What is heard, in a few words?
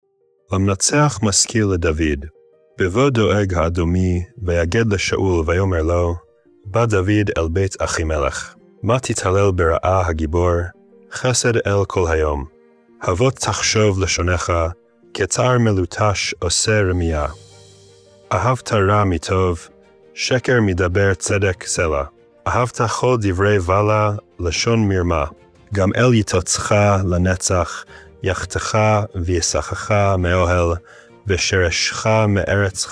poetry and prayer psalm hebrew